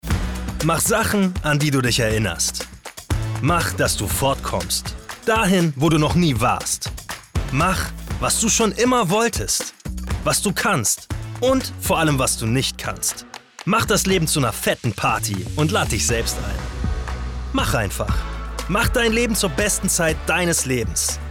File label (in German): Werbung Energy, Commercial, German